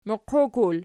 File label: "muqiukul", Archi